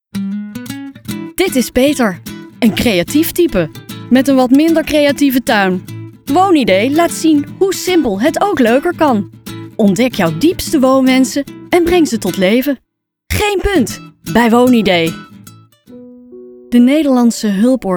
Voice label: voiceover